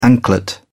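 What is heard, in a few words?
"anklet", British English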